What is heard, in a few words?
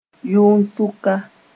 "yʋn-sʋka", moore